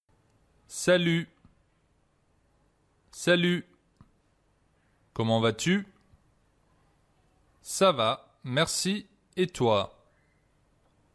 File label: Conversation, French